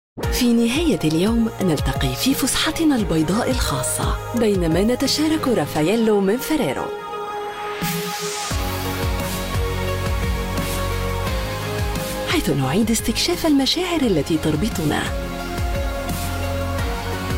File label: arabic, arabic female voices